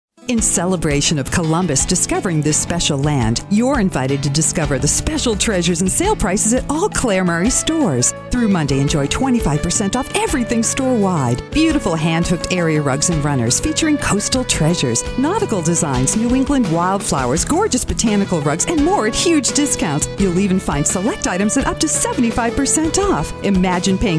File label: commercial